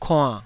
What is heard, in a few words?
"kuann3", Taiwanese syllable